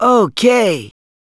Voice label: "Ok normal", Male, English; gangsters